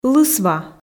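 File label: "лысва" Komi, female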